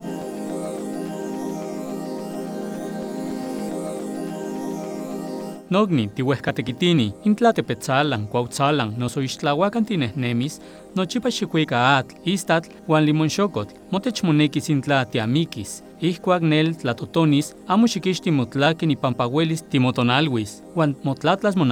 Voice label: NAHUATL